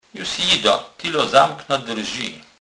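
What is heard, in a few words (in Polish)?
"tylo", kurpie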